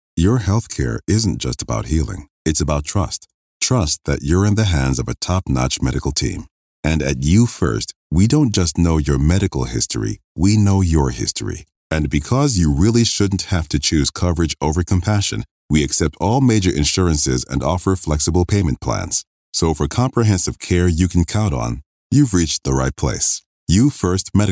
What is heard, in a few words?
Sincere